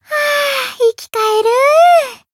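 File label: healed, Japanese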